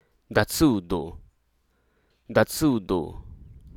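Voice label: Hruso Aka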